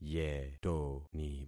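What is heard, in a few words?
"yetoni", concatenated syllables; Affixing experiment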